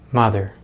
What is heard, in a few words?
"mother", American English; easypronunciation